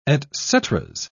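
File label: "etceteras", British English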